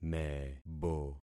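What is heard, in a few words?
"mebo", concatenated syllables; Affixing experiment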